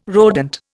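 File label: "rodent", Indian English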